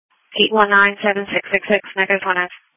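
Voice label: Police Patrol (Dispatch)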